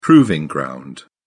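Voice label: "proving ground", British English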